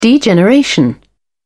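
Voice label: "degeneration", British English, dictionary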